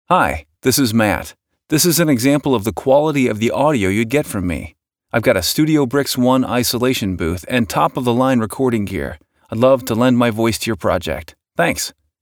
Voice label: Studio Sample

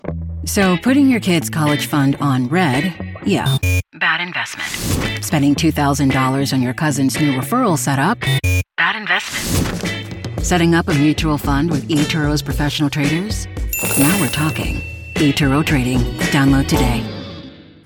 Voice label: E-Learning, Corporate Training, Female Voice; ETORO TRADING

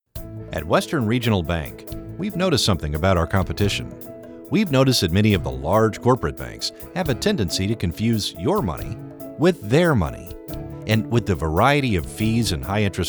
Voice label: commerical

